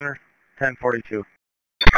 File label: Police Dispatch; 155.67 Stevens Point PD